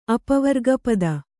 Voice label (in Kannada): "ಅಪವರ್ಗಪದ", Kannada